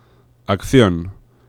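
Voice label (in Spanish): "accion", Spanish; laboratori fonetica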